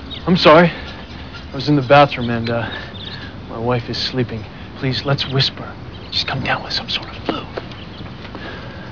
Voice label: whisper